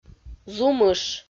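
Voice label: "зумыш", Komi-Zyrian, female; audio dict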